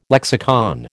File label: "lexicon", American